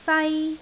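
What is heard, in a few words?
"sai1", Cantonese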